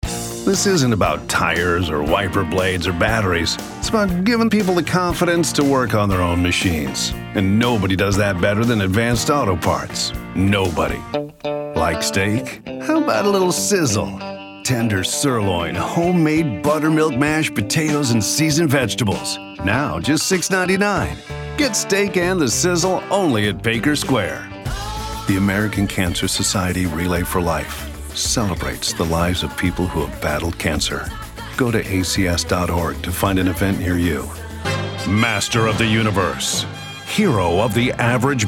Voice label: commercial, men

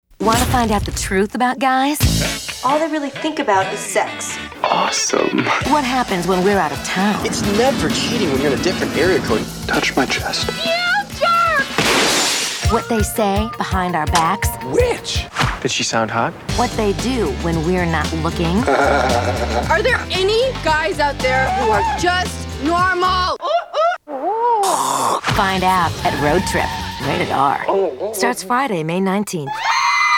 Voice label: charity trailer

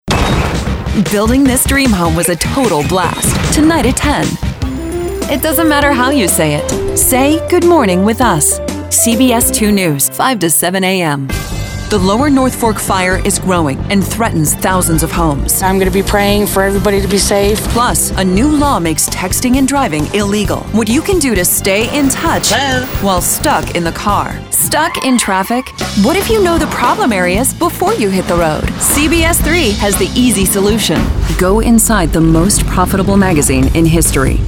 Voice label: tv affiliate female

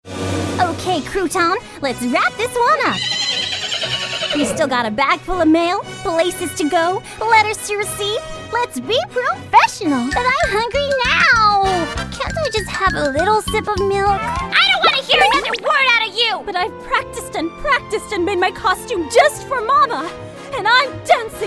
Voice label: animation female

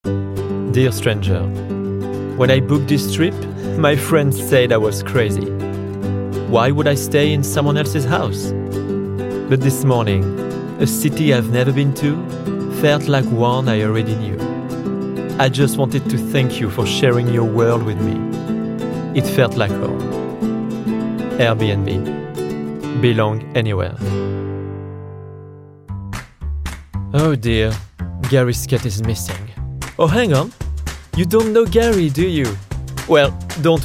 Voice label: commercial men french accent

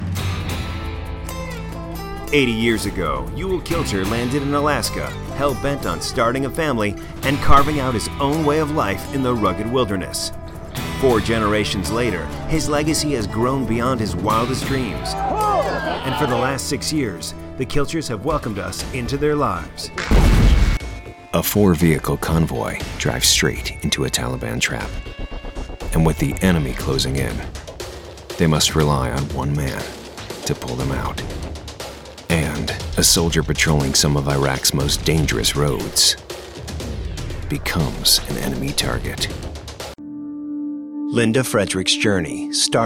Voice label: narration male

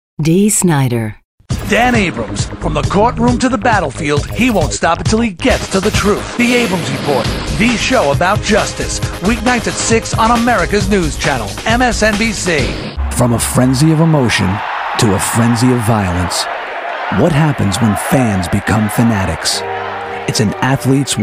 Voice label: celebrity promo

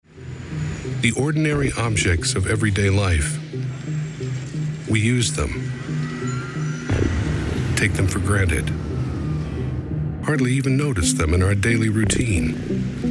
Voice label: narration

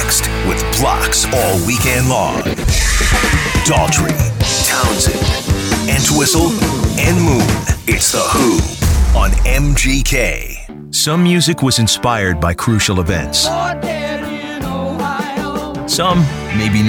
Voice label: radio imaging men classic rock

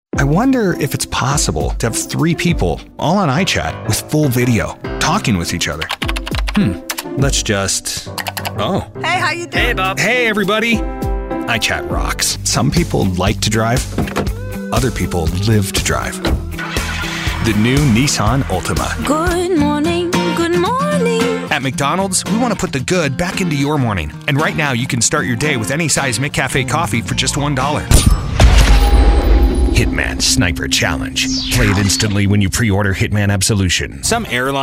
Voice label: commercial, men

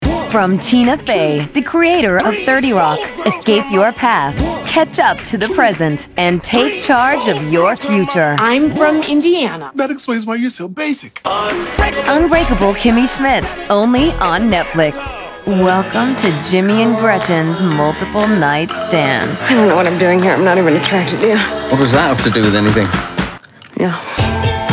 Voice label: promo, women

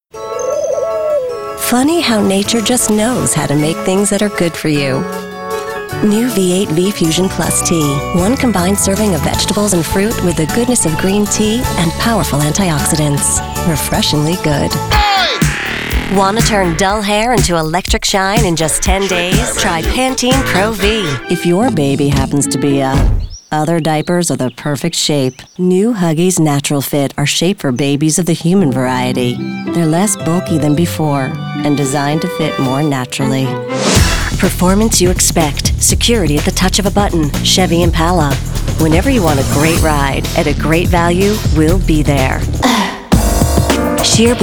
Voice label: commercial female

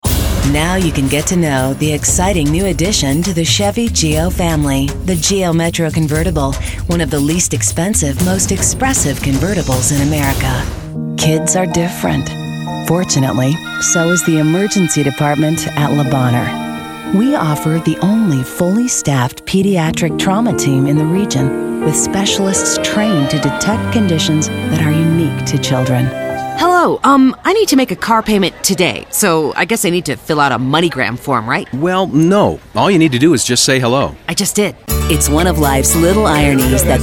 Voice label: commercial, commercial women

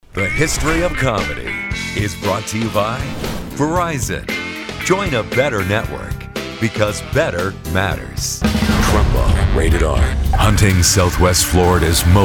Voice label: promo male

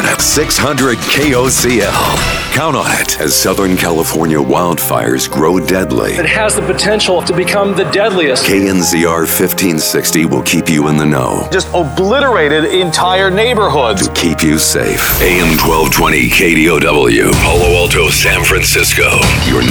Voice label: radio imaging men news talk

